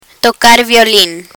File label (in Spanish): "tocar violin", Spanish